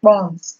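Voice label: "kpons", Nigerian Pidgin, female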